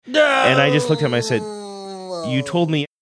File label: drawn out doh oh